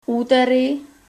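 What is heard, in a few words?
"úterý", Czech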